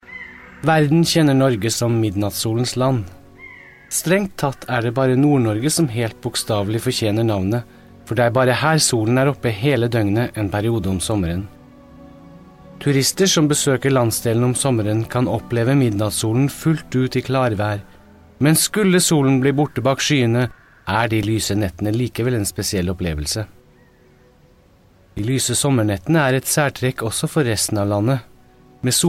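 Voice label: Norwegian documentary; GoLocalise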